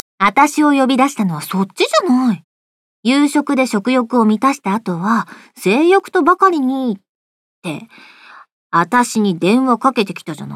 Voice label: voice drama